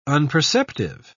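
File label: "unperceptive", British English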